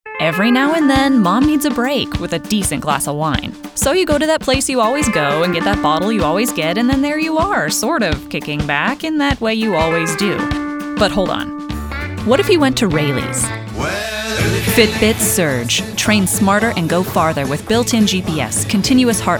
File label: women commercial